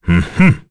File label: Vox, kr; Bernheim; kingsraid